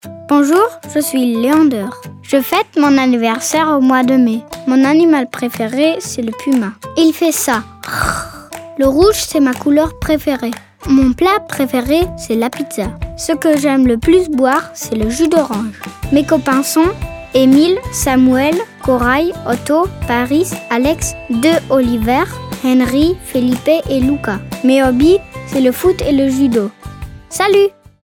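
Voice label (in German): Selbstvorstellung French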